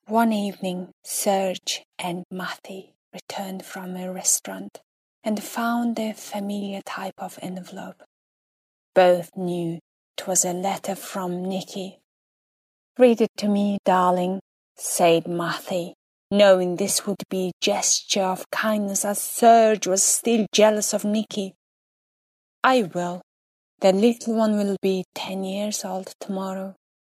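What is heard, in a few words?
Mongolian, female, English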